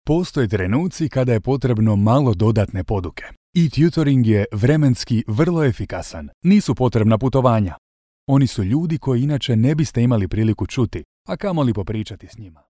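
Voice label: Bosnian, male, e-learning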